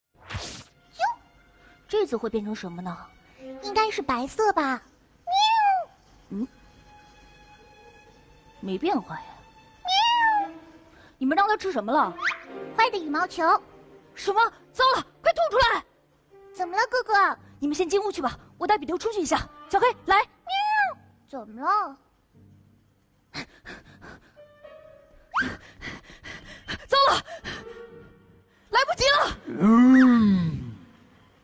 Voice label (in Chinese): 动漫人物配音; 同时为多个动漫人物配音作品